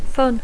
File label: "fun", English